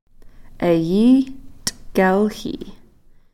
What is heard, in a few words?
"eyi-thkeelxii", Cow Creek